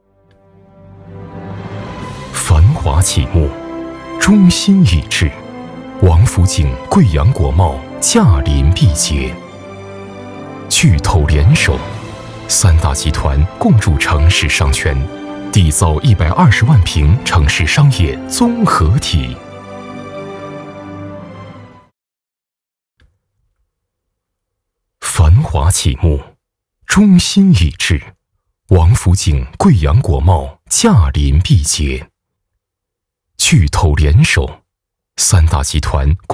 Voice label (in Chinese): 大气宣传地产